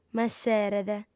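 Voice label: "m'esseret", female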